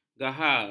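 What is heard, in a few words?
"gahar", Malay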